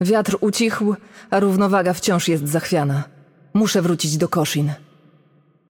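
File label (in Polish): Game Outro, Polish; pl PL; bloom minigame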